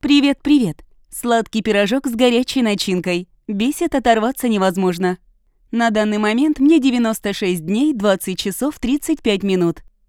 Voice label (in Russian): robot inoplanetyanin